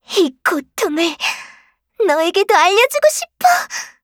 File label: attacked, Voice Line, KOR; Eternal Return; Garnet